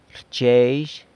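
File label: "rchyezhy", sneezes, San Lucas Quiaviní Zapotec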